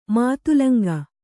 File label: "ಮಾತುಲುಂಗ", kannada